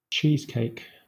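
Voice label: "cheesecake", British English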